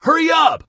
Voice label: hurry up, Male; Kenney's Sound Pack; Voiceover Pack